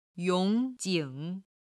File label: "yong3 jing3", Chinese